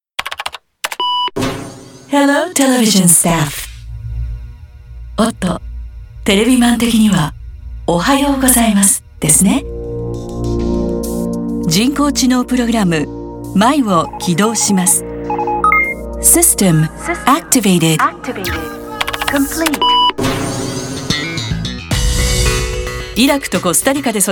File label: Slating AI style